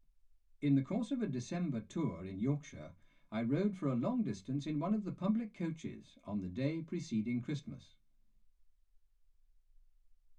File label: male speech english